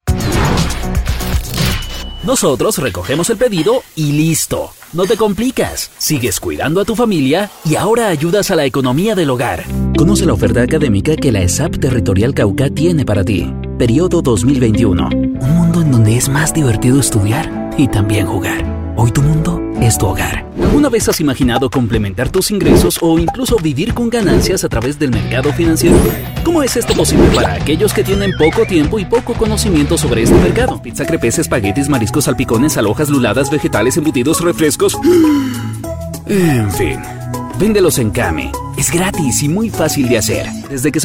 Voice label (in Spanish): Reel Locución Comer